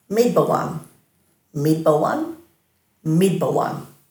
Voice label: "midbawanj", Dhurga

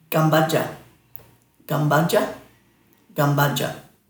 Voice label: "gambadja", Dhurga

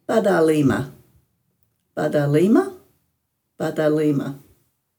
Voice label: "badhaalima", Dhurga

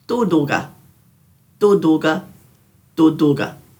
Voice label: "dhuduga", Dhurga

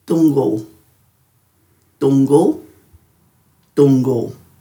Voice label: "dunggul", Dhurga

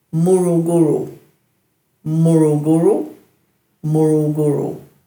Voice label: "muruguru", Dhurga

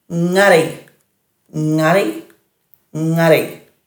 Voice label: "ngarri", Dhurga

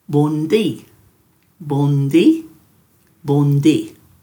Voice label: "bundhi", Dhurga

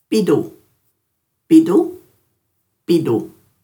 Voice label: "bidhu", Dhurga

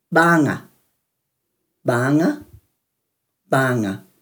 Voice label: "baanga", Dhurga

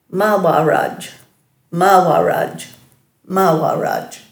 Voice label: "mawaradj", Dhurga

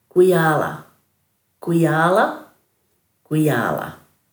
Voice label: "gwiyaala", Dhurga

